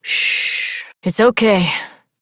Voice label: Horse Calm Adult; Duviri